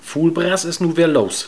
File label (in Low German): fulbras wer los, plattdeutsch